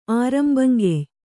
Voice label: "ಆರಂಭಂಗೆಯ್", Kannada

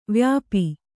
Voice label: "ವ್ಯಾಪಿ", Kannada; vyāpi; noun

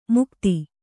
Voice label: "ಮುಕ್ತಿ", Kannada

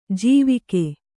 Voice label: "ಜೀವಿಕೆ", Kannada

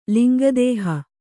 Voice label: "ಲಿಂಗದಾಹ", kannada

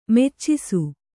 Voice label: "ಮೋಚಿಸು", Kannada

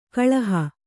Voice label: "ಗಳುಹು", kannada

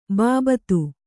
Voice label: "ಬಾಬತ್", Kannada

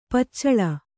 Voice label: "ಪೊಚ್ಚಳ", Kannada

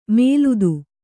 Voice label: "ಮೇಲುದು", Kannada

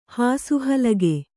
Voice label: "ಹಾಸುಹಲಗೆ", Kannada

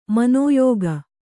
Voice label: "ಮನೋಯೋಗ", Kannada

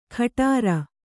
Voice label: "ಖಟಾರ", Kannada

